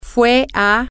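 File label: he went to, spanish, female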